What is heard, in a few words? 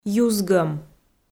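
"юзгӧм", Komi, female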